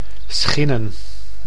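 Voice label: "Schinnen", Dutch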